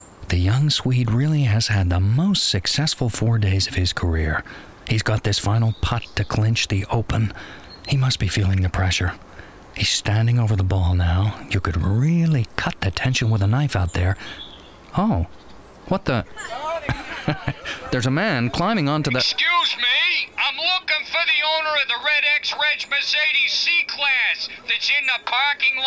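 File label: English US Commercial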